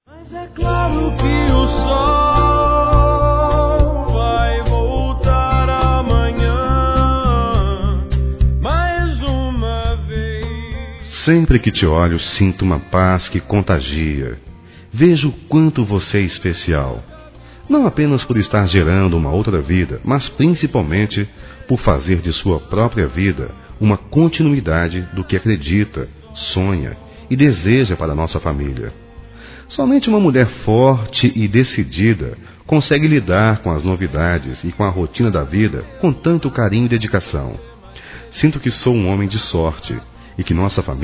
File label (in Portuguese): Gestante Romântico Masculino, Telemensagens; Telemensagens 2017